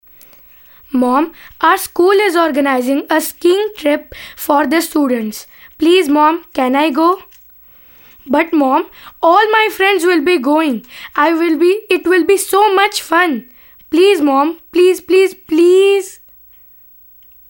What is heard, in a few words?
english, male voice